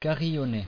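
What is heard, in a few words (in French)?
"carillonner" French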